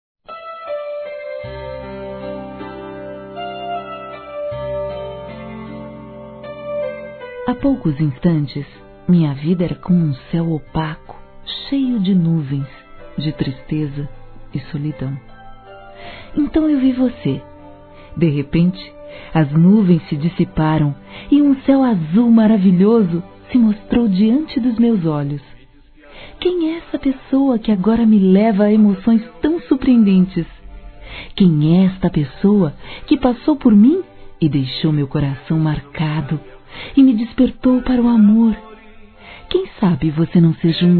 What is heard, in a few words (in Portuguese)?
Paquera Feminino; Telemensagens; Conquistas Cantadas paqueras; Telemensagens 2017